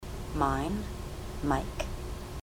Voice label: mine, ontario